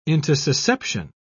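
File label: "intussusception", English, uk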